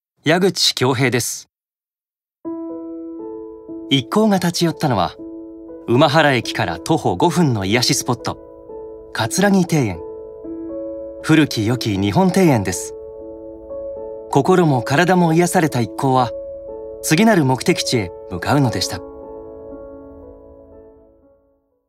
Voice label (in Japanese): ノーマル